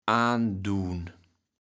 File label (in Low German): "aanduun", kaevels platt